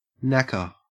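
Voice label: "knacker", Australian English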